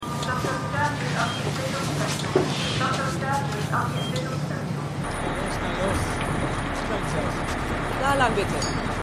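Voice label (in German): Durchsage Wärter Wärterin; Stimmproben; Bosch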